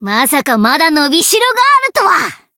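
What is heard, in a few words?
Voice growth Japanese; morgana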